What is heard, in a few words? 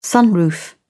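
"sunroof", English, uk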